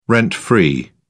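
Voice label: "rent-free", English, British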